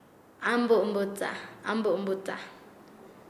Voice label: Nasa Yuwe; despedazarse; paez

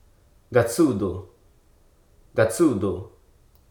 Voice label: "imitate", Hruso

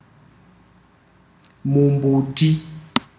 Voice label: "tree not log", ndau

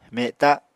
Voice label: "meta", Apatani

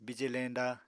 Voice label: "bije leñda", Apatani

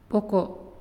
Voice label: "Come", Naasioi

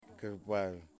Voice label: "Two", Yimas

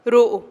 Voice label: "ru'u" boca

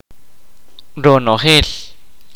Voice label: kaqchikel; all everything

